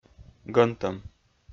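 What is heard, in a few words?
"гӧнтӧм", Komi, male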